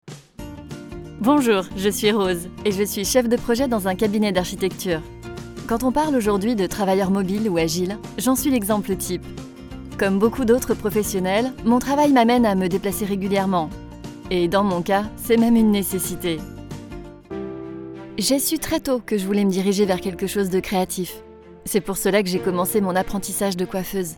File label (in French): Temoignage, Voix Off